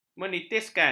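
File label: "menitiskan", Malay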